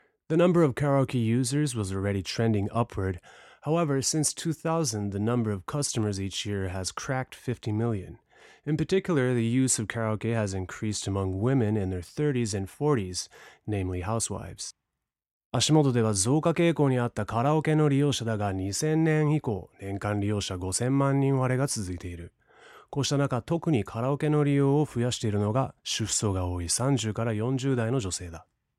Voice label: dj bilingual